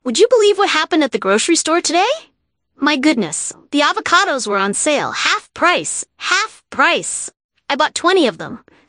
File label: Quirky Female English; OpenAI